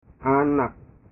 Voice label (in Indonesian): "anak", bahasa